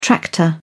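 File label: "tractor", British English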